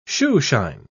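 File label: "shoeshine", British English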